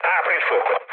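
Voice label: Italian attack position mood low, speech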